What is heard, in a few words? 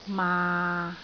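"maa6", Cantonese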